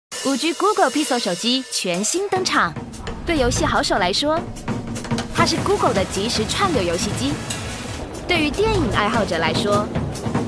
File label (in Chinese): 國語 女; 5G Google Pixel 手機